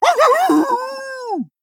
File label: Male Gnoll Death